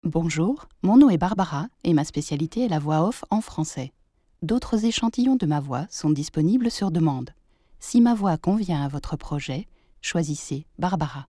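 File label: French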